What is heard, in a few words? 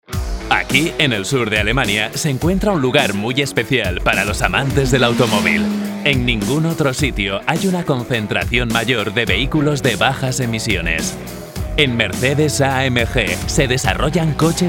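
Spanish, Fremdtake Dynamisch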